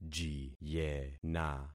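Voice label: "jiyena", concatenated syllables; Affixing experiment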